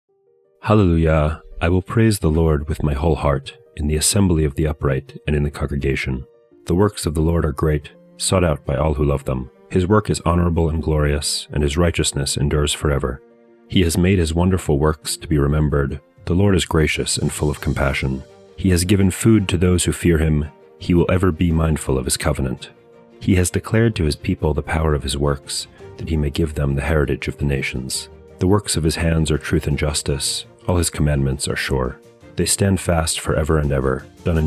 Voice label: poetry and prayer psalm english